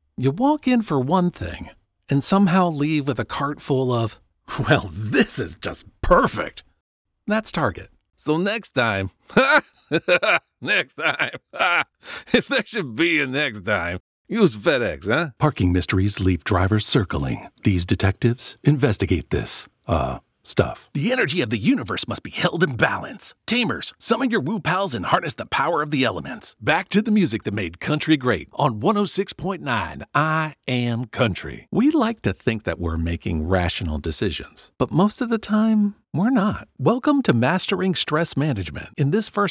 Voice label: Dry VO

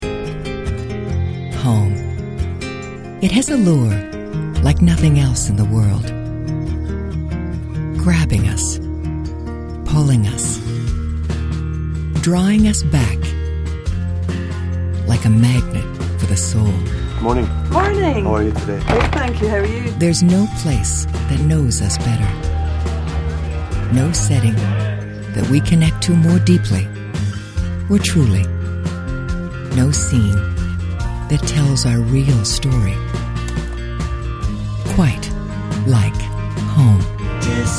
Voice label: Storyteller Inspirational

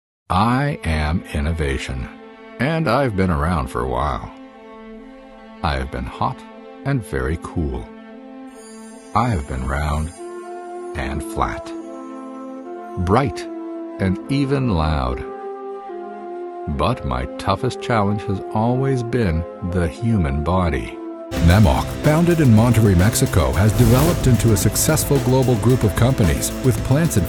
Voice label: corporate

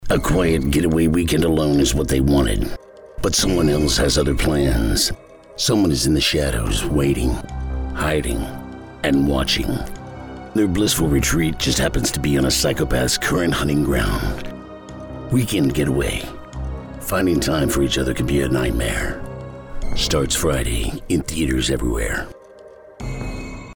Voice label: movie trailer; weekend getaway

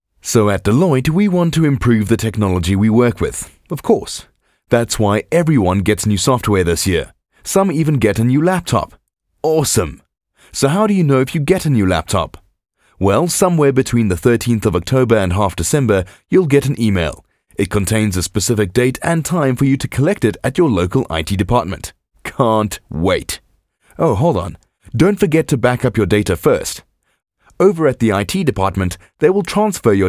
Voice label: VO Explainer Video

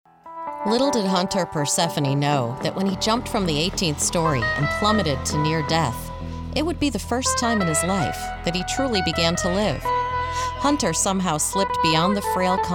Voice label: Narration VO

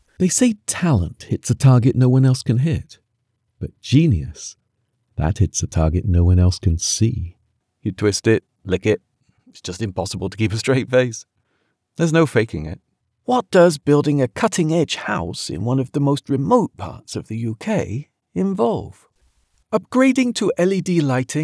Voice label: Corporate Marketing

